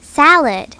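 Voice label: "salad", English; My Talking Dictionary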